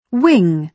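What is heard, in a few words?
"wing", British English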